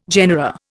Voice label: "genera", Indian